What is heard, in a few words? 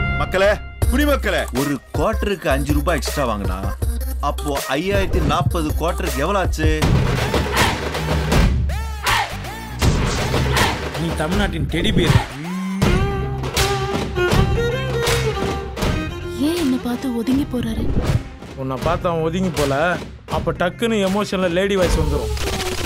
Dialogue Ringtone